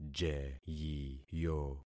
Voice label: "jeyiyo", concat syllables; Affixing experiment